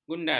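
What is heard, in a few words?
"gundal", Malay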